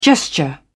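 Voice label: "gesture", British English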